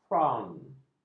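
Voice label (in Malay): "faun", Malay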